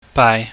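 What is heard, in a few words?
"baai", Cantonese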